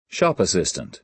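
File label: "shop assistant", American English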